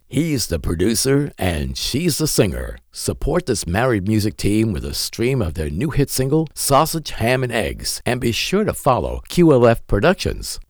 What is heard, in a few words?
music promo